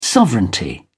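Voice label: "sovereignty", English, British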